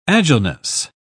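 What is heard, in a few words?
"agileness", British English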